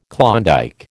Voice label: "Klondike", American